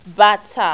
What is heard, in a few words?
"bata", Yoruba; CONSONANTS; baba-bata-obo